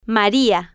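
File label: "maria", Spanish, female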